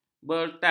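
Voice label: "bertas", Malay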